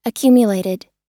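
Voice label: "accumulated", American English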